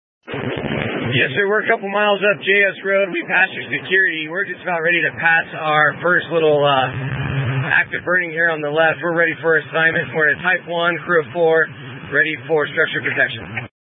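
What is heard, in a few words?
Incident Tactical 1, Scanner audio recording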